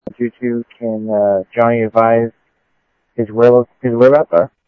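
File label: Sheriff East County, scanner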